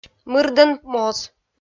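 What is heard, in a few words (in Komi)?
"мырдӧн моз", Komi, female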